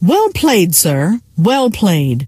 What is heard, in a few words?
"well played", scientist female